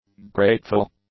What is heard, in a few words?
"grateful", english; adjectives